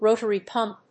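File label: "rotary pump", English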